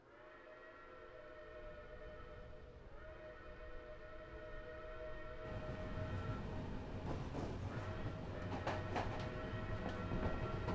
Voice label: ASMR RP; Void Train